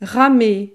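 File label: "ramer", French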